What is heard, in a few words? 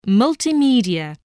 "multimedia", voice